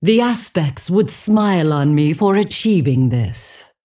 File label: Female Dragon Bark; Alexstrasza